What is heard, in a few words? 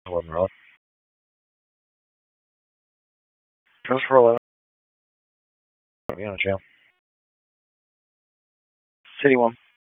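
Police Dispatch, p25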